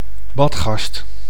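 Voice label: "badgast", Dutch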